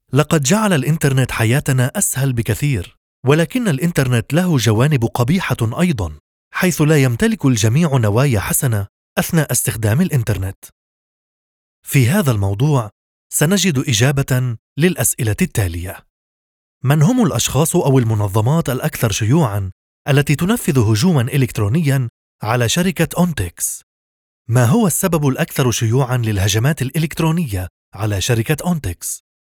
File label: arabic audiobooks